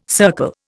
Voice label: "circle", Indian

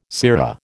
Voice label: "sirrah", American

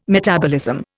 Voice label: "metabolism", Indian

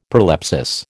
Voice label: "prolepsis", American

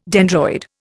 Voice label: "dendroid", Indian

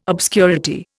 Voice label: "obscurity", Indian

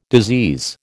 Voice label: "disease", American

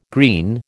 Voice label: "green", American

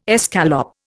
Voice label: "escalope", Indian

